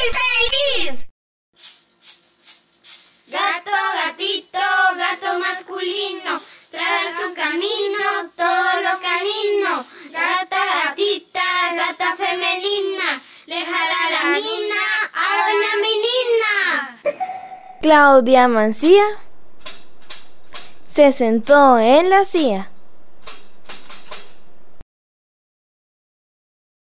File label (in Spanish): RITMOS CON PALABRAS